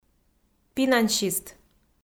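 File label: "финансист", Komi, female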